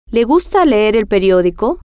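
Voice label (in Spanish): le Gusta Leer El Periodico, spanish, female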